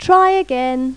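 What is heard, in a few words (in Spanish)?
"intentalo", Spanish; Pipo-Aprende musica con Pipo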